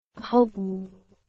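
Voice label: Burmese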